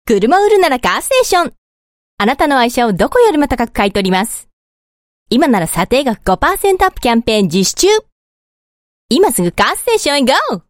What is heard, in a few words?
Japanese Female